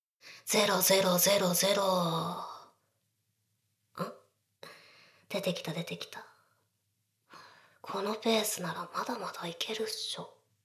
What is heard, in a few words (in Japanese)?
ダウナー風俗 カウント